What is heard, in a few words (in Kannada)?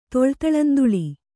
"ತೊಳ್ತಳಂದುಳಿ", Kannada